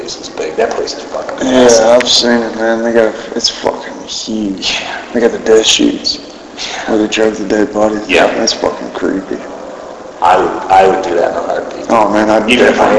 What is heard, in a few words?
Unexplained whispers (Yeah Yeah)